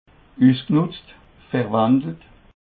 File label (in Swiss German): "usgnutz", alsace